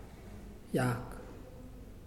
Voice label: "you", Muyuw; Lae Unitech

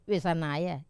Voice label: "sanaia" to be dry, Wamesa

